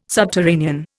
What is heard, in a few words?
"subterranean", Indian